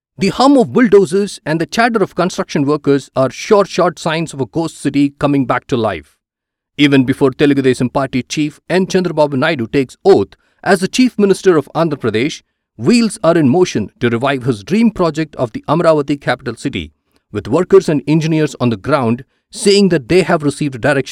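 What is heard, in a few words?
English news, News Reading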